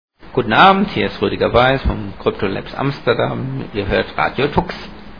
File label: promo